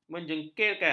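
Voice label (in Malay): "menjegilkan", Malay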